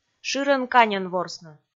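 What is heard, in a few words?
"шырӧн-каньӧн ворсны", Komi, female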